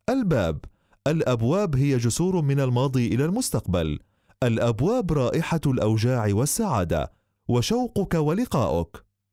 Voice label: arabic